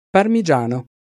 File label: "parmigiano", Italian Pronunciations